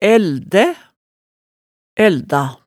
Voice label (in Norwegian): "ældæ", hemsedalsmaalet tale